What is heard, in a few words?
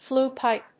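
"flue pipe", English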